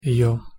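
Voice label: "ё", Russian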